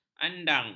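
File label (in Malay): "andang", Malay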